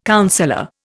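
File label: "counsellor", Indian English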